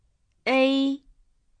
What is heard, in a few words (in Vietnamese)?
"ei", tieng trung; nguyên âm